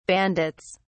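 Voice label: "bandits", British English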